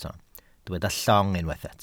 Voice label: "llong", welsh